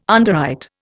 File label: "underwrite", Indian